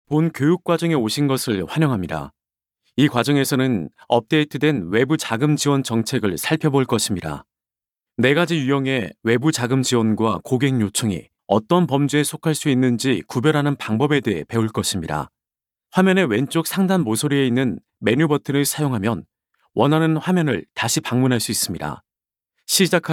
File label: KOREAN MALE